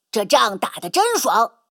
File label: Speech Quick Commands Good Game